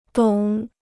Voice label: "懂", Chinese

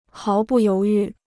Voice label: "毫不犹豫", Chinese

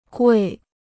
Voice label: "贵", Chinese

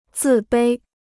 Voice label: "自卑", Chinese

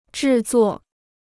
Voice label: "制作", Chinese

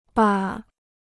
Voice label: "吧", Chinese

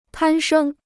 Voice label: "攀升", Chinese

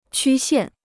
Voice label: "曲线", Chinese

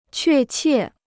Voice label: "确切", Chinese; què qiè; definite; exact

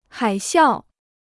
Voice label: "海啸", Chinese

